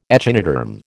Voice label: "echinoderm", American

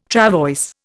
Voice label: "travois", Indian

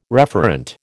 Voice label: "referent", American

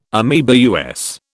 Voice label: "ameba", US

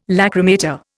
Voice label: "lachrymator", Indian

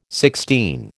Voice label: "sixteen", American

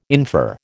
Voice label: "infer", American

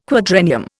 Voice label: "quadrennium", Indian

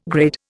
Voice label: "grid", Indian

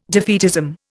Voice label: "defeatism", Indian

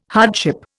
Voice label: "hardship", Indian

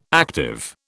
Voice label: "active", British

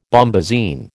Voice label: "bombasine", American

